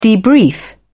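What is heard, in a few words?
"debrief", British English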